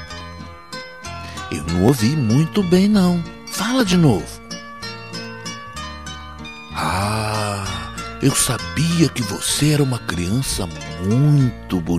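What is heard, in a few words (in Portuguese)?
Feliz Natal pra Crianca, male, Papai Noel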